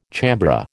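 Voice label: "chambré", American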